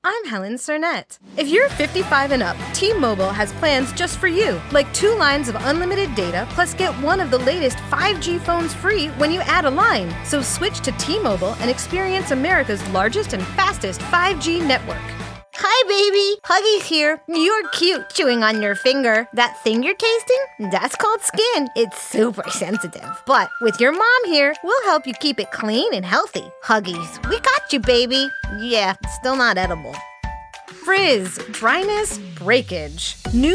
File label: Commercial Demo; 2min Fun Edit